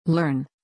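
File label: "learn", English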